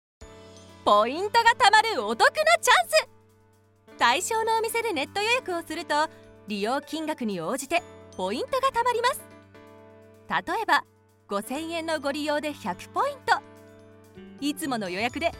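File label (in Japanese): NAサンプル, 声優・ナレーター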